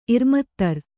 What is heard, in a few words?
"ಇರ್ಮತ್ತರ್", kannada